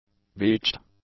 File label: "beached", English; adjectives